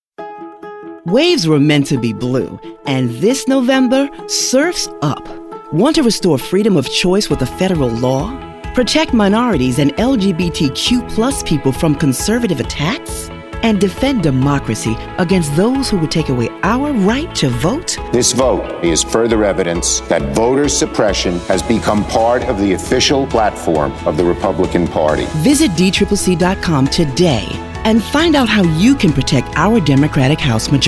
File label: Voiceover